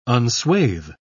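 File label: "unswathe", British English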